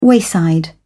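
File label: "wayside", British English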